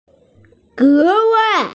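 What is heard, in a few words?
"ge wai" Chinese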